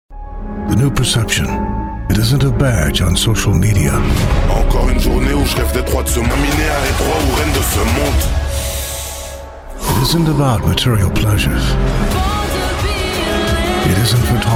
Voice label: Commercial